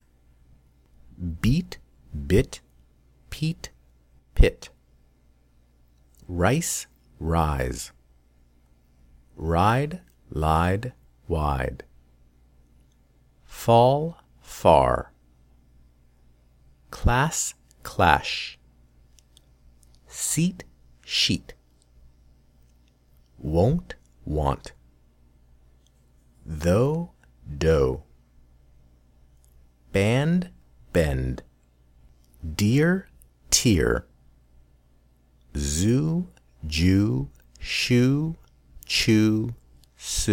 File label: minimal pair practice